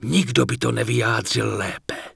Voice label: Night Elf Male err party full; wowpreklad; czwow

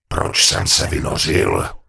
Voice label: Gnome Male err loot too far; wowpreklad; czwow; GnomeMaleErrorMessages

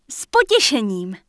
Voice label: Night Elf Female You're Welcome; wowpreklad; czwow; NightElfVocalFemale

